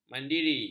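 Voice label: "mandiri", Malay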